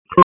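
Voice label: FIRE Operations, p25; FIRE OPS 2; wake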